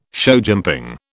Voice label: "showjumping", British

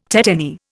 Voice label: "tetany", Indian

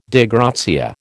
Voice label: "Dei gratia", American

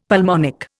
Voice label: "pulmonic", Indian; Dictionary

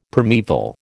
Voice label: "primaeval", American

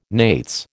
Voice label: "nates", American

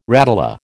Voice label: "radula", American

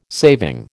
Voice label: "saving", American

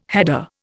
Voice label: "header", Indian

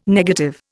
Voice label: "negative", Indian

